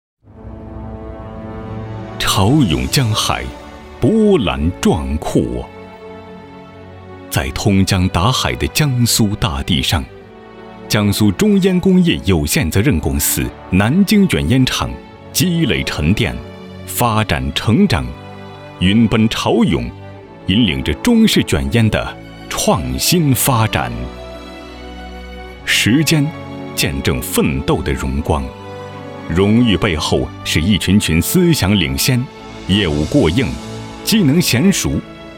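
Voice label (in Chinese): 专题大气浑厚; 中烟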